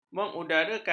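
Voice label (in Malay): "mengudarakan", Malay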